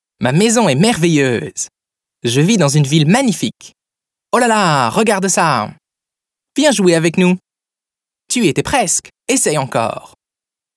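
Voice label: French male children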